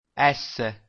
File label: italian alphabet s